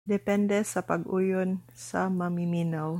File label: Cebuano